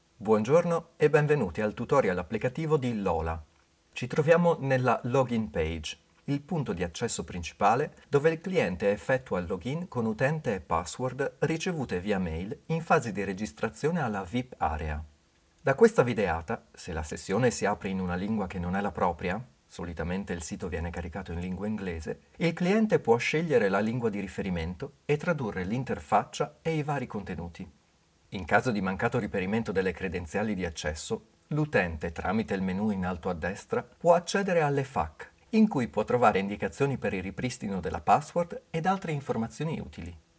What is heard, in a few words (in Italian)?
ita